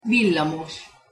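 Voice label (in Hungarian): "villamos" Hungarian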